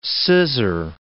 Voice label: "scissor", English